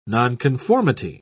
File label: "nonconformity", en uk